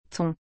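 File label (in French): "thon", French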